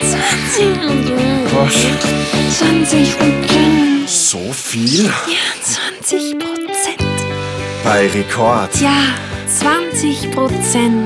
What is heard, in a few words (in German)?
Radiowerbespot; Rekord Fenster